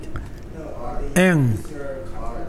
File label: "wind", Mokilese